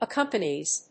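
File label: "accompanies", English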